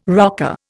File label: "rocker", Indian